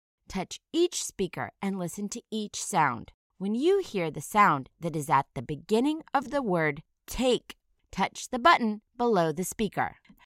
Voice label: "take", initial phoneme isolation

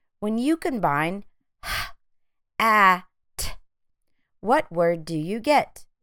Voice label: h ă t phonemic blending

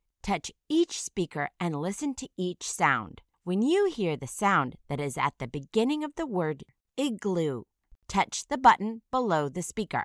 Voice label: "igloo", initial phoneme isolation

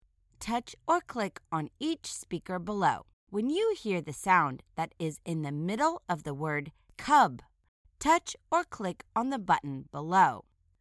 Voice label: cub medial phoneme isolation

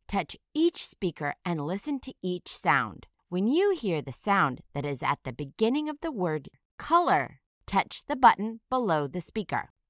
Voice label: "color", initial phoneme isolation

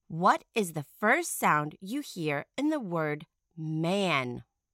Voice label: man initial sound

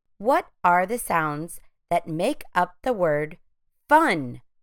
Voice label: fun phonemic segmentation

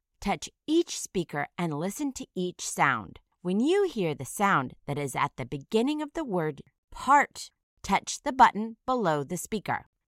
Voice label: "part", initial phoneme isolation

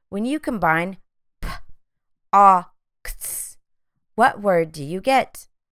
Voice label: p ŏ x phonemic blending

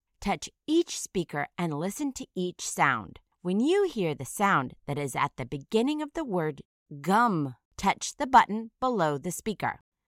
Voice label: "gum", initial phoneme isolation

